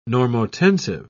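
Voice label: "normotensive", British English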